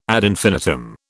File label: "ad infinitum", British